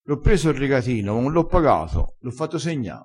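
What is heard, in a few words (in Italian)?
"segna", dialettale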